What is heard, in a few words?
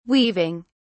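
"weaving", British English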